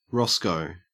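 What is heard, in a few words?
"roscoe", Australian English; pronunciations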